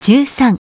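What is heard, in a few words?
"ten three", English; iOS 4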